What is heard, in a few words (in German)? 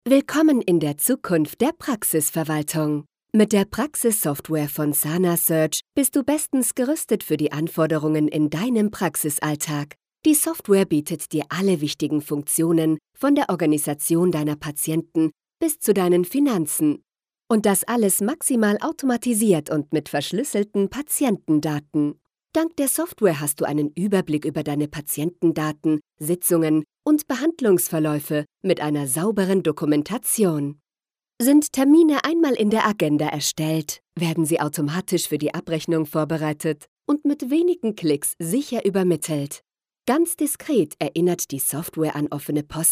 erklaerfilm; sanasearch